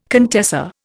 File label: "contessa", Indian